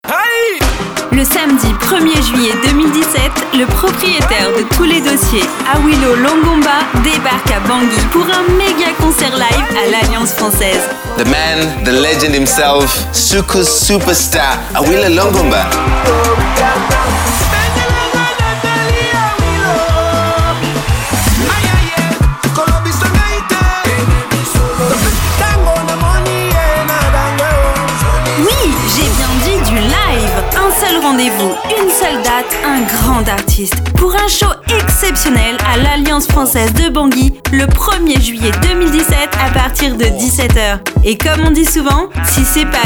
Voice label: Megaconcert Spot

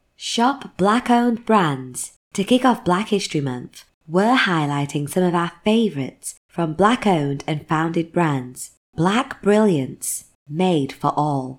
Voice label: Beauty Retail Brand Ad; YouTube Ad

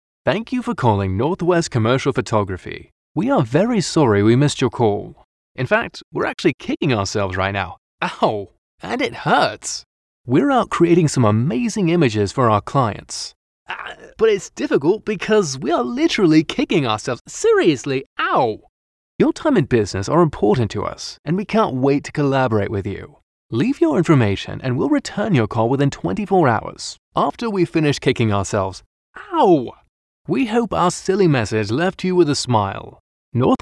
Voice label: Funny British IVR

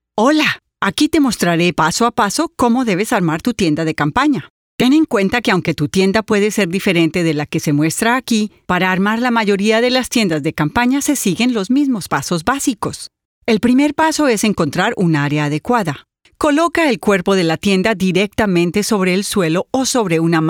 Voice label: Explainer video Reel

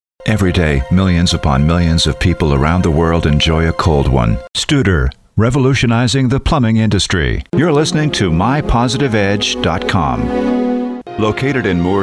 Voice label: Commercials

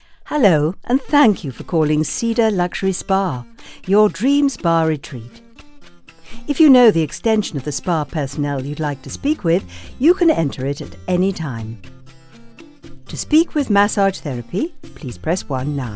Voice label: on hold

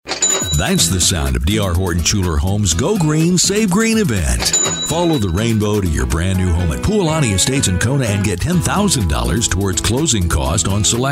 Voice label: Commercial Sampler; 60sec

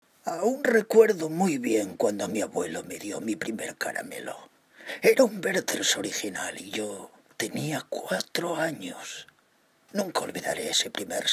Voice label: Grandpa voice

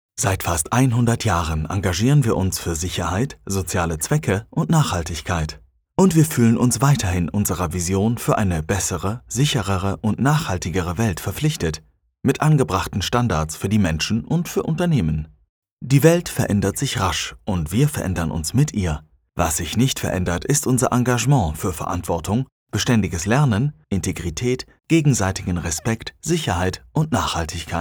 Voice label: Explainer

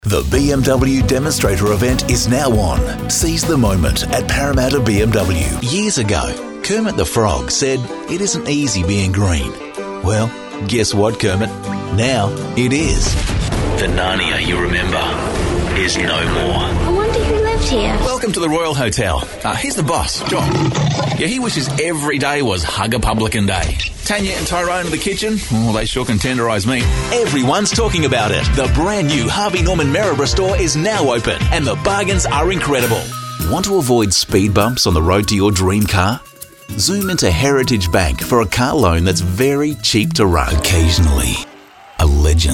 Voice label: Commercials

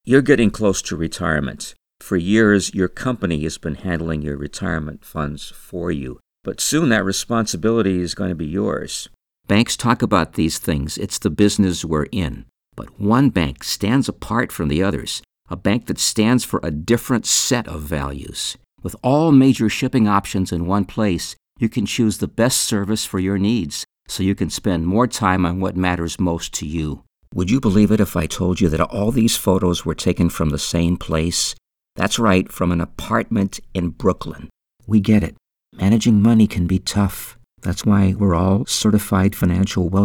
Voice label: Commercial Collage

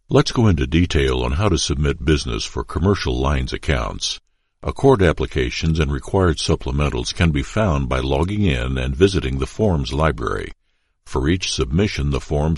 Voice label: insurance explainer

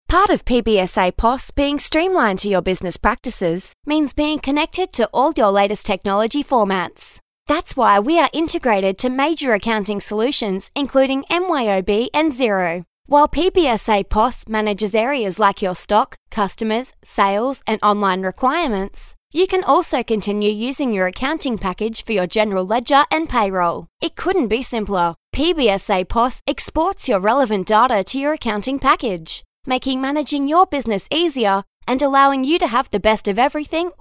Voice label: Female

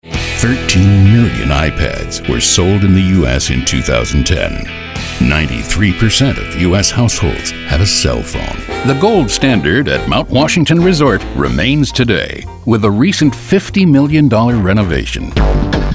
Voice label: Basic VO

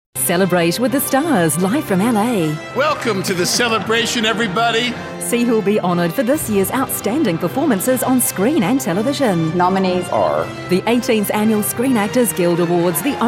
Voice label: TV Trailers

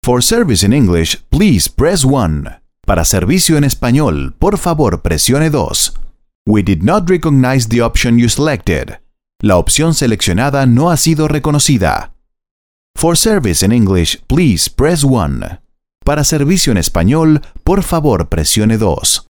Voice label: Bilingual IVR

